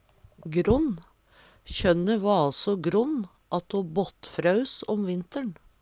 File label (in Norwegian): gronn, Numedalsmål; Adjektiv